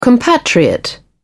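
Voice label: "compatriot", British English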